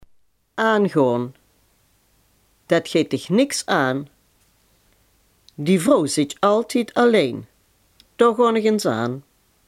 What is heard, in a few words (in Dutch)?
"aangaan", Limburg